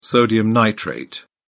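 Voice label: "sodium nitrate", British English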